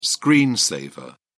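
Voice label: "screen saver", British English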